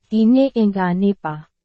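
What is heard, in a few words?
Burmese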